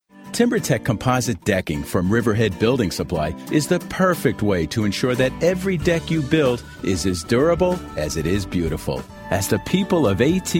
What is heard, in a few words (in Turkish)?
Amerikan Ingilizcesi Erkek